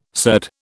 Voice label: "surd", British